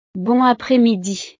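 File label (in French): "bon apres midi", French; ¡Buenas tardes!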